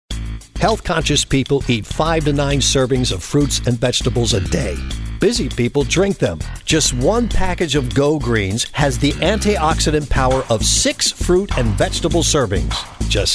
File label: Commercial Demo